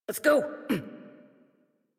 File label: Horse Sprint Adult; Warframe; Duviri